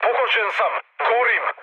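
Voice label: Serbian on fire mood med, speech; War Thunder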